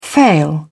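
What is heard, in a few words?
"fail", British English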